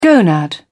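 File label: "gonad", British English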